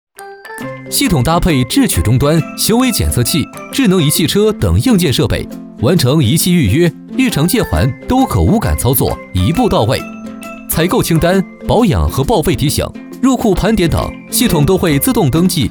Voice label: 男 活泼轻快; 飞碟说MG

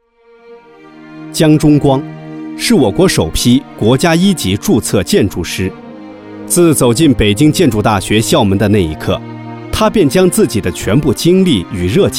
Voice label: 男 人物纪录片

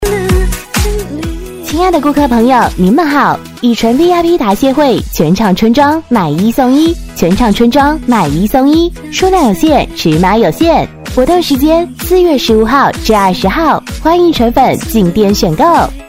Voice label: 配乐版, 女; 以纯

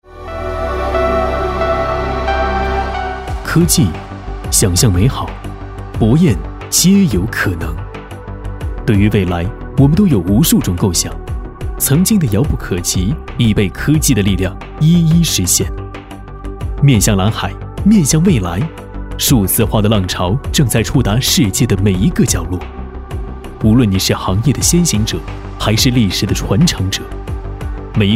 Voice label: 男 宣传片 科技感; 博彦科技

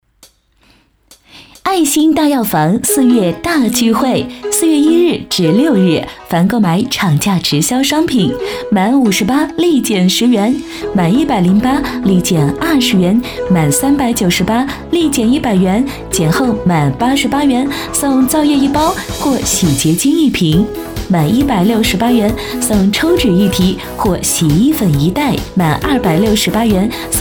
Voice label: 女 温馨欢快药店促销

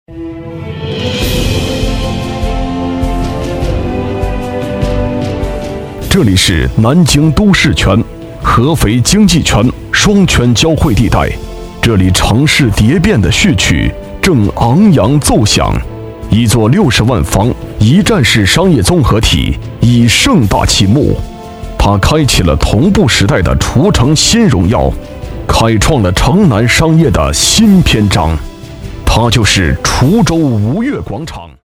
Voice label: B男 宣传片; 吾悦广场

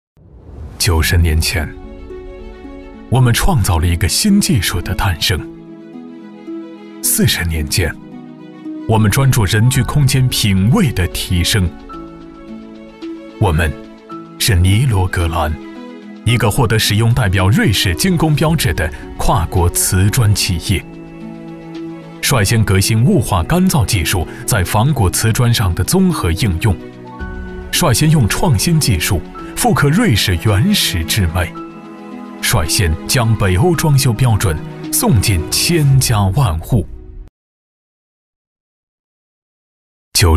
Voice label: A男 广告宣传片 高级 高端; 尼罗格兰

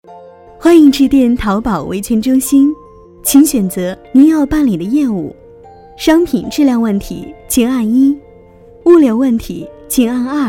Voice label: A女 彩铃; 淘宝维权中心

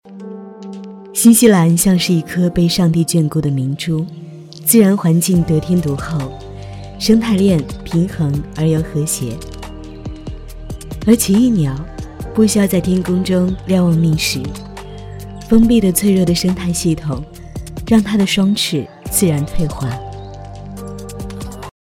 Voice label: 女 宣传; 新西兰

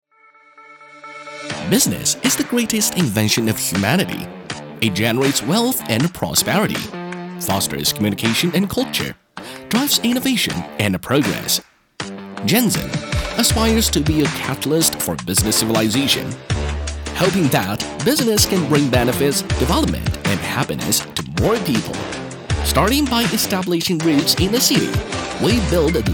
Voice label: 男 英语 年轻 活动动感广告

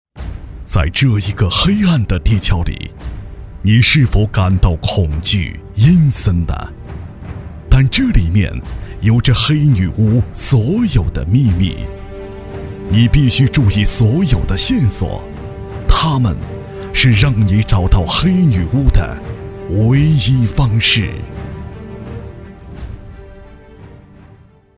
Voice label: C男 解说 黑女巫

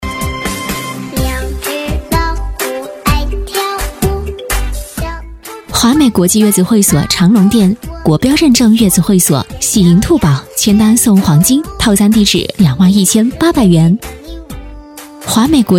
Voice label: 促销, 女

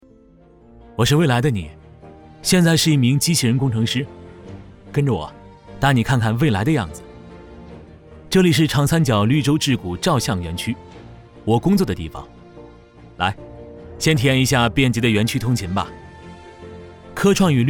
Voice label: 男 宣传片 年轻 科技 未来 独白

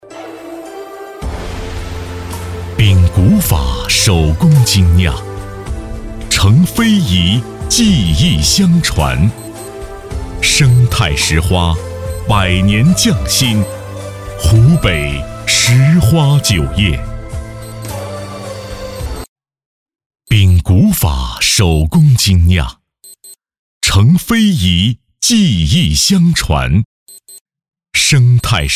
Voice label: 男 广告; CCTV央视广告《石花酒业》

